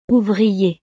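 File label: "ouvrier", French; profesiones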